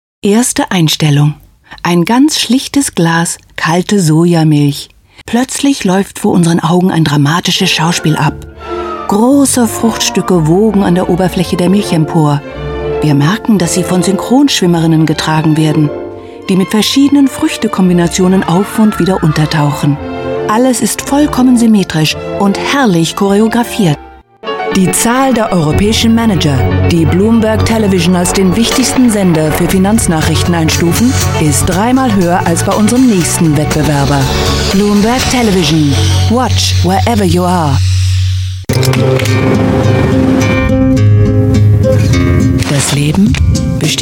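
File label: german commercials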